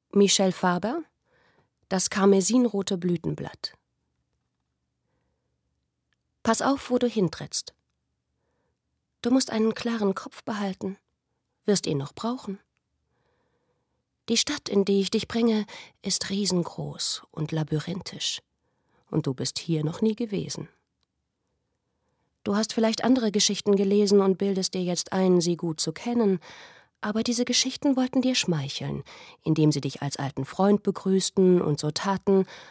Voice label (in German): Sprecher Demo Roman; Michel Faber; Das karmesinrote Blütenblatt